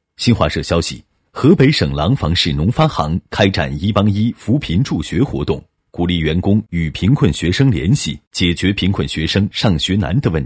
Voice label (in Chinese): 新闻播报